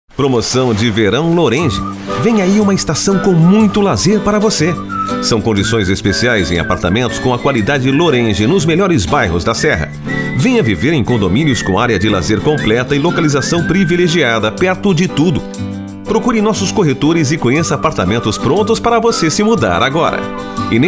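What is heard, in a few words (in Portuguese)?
VOZES MASCULINAS, Padrão Informativa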